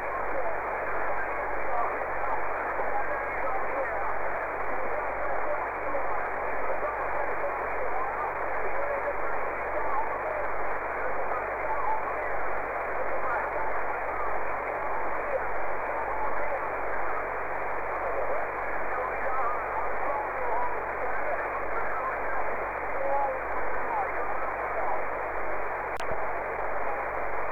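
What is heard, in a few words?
1296 MHz ssb; EME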